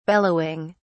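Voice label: "bellowing", British English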